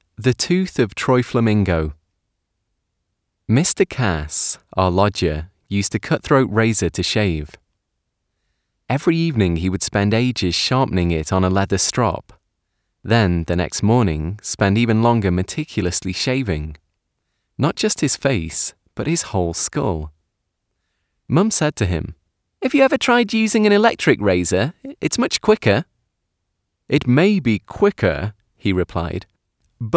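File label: audiobook sample, British Male; Flamingoes in Orbit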